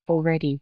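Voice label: Voice Acting es, female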